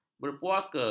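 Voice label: "berpuaka", Malay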